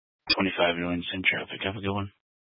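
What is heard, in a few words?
Sheriff Dispatch, Scanner audio recording archive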